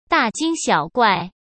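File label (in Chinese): "大惊小怪", Mandarin Chinese; hsk; chinese flashcards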